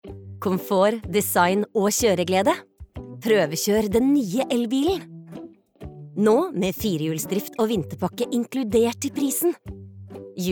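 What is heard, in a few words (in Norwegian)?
no reklame, norwegian, female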